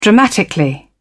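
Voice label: "dramatically", British English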